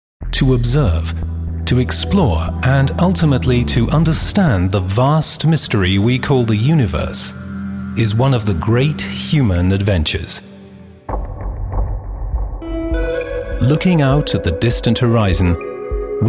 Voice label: UK Documentary Universe